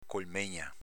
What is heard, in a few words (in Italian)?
"colmegna", dialetto veneto